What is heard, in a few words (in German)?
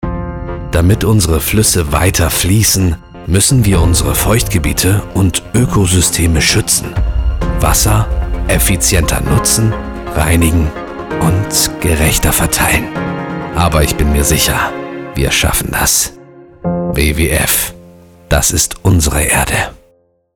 Kampagnenspot; WWF